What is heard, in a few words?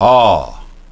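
vowel open o, English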